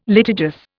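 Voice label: "litigious", Indian English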